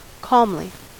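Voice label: "calmly" English, American accent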